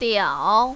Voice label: "diao3", mandarin, female